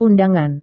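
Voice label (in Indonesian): "undangan", Indonesian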